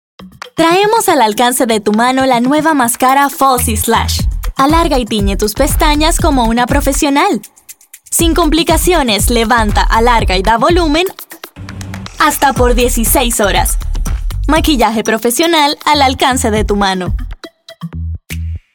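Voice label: Latin American Spanish Commercial; voice demos; GoLocalise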